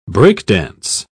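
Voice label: "break dance", British English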